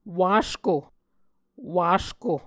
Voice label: "wasko", Muscogee

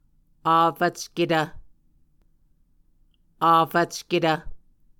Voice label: "afvcketv", Muscogee

